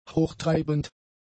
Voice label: "hochtreibend", German; adjectives